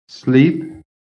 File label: "sleep", american